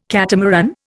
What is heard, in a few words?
"catamaran", Indian